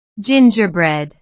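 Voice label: "gingerbread", English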